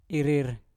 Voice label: "irir", Mpur; kalung

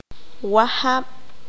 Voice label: "Guitarra", Q'anjob'al

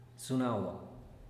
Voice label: "zunagua", teotitlan; espero

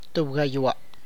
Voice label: "one hundred", tlacochahuaya

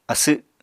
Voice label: "asw", Apatani

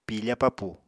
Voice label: "piifa papù", Apatani

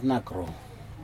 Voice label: "nakro", share, Aneityum

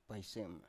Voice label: "paisem", Biak

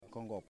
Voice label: "Stairs", Yimas

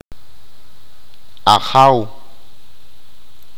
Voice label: God, achi

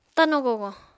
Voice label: "tano gogo", Apatani

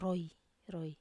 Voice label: "roi", Wamesa

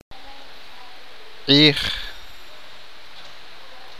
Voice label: sol, achi

